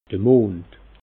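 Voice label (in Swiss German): "mond", Alsatian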